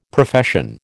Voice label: "Profession", American